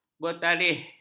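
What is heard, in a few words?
"bertarikh", Malay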